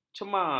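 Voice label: "cemar", Malay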